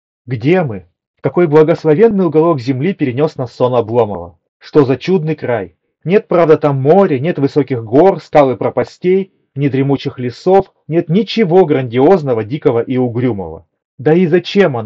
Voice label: Mic; Запись с микрофона гарнитуры; Soundcore Sleep A30